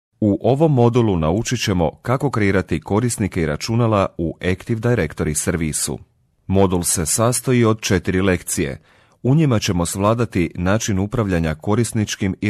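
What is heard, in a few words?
serbian male e-learning; voice demos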